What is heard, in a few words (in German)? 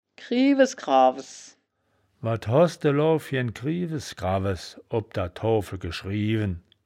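"kriewes krawes", wittlicher woerterbuch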